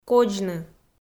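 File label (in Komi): "кодзны", Komi, female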